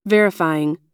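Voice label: "verifying", American English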